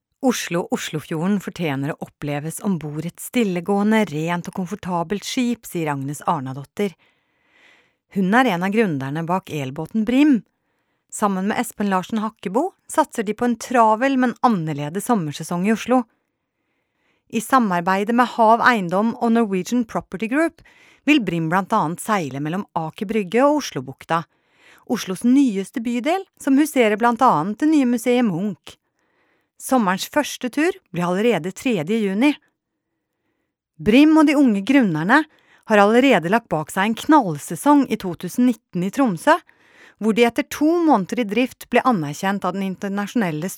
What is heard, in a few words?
norsk